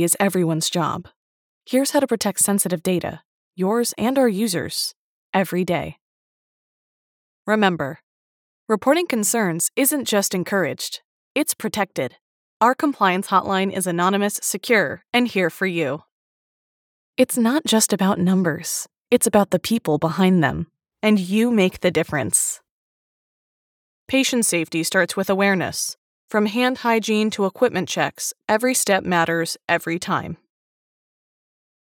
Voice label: Internal Video Samples for Voices, eLearning & Corporate Learning; teaching mathematics